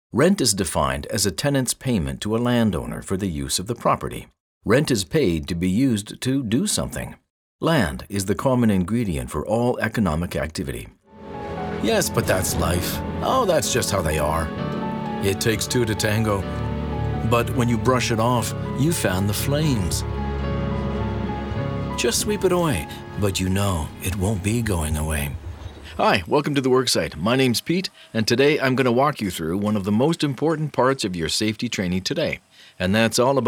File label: Learning and Tutorial